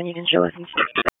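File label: Raleigh Police Special Operations Tactical, p25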